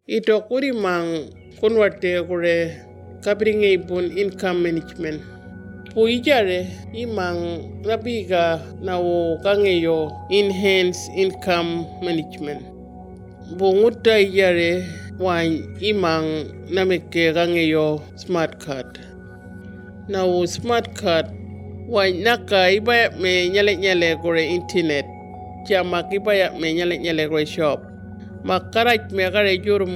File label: kunwinjku; enhanced income management